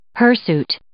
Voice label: "hirsute", English

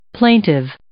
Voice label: "plaintive", English

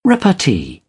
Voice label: "repartee", British; English

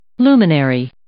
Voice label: "luminary", English

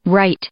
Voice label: "right", English

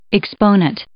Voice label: "exponent", English

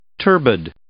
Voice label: "turbid", English

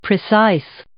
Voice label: "precise", English

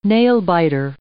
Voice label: "nail biter", English

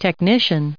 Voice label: "technician" noun

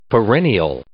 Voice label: "perennial", English

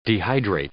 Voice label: "dehydrate", English

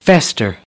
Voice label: "fester", American; English; ɚ - əɹ

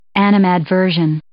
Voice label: "animadversion", English

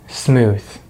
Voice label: "smooth", English; Consonants in American English; easypronunciation

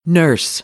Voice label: "nurse", American English; ɝː - ɜː

